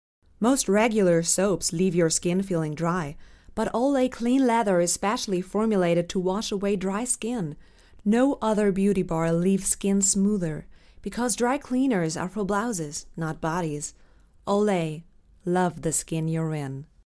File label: US COMMERCIAL; OLAY